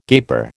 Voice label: "gaper", American

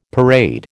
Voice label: "parade", American

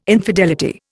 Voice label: "infidelity", Indian

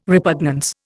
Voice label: "repugnance", Indian

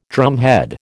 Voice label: "drumhead", American

